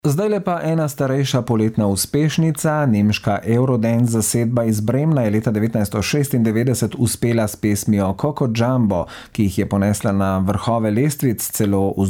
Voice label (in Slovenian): govor glasba; racunalnistvo; zvok